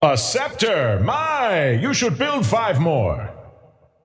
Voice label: scepter announce in game; dota